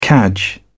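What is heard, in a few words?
"cadge", British English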